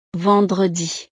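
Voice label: "vendredi", French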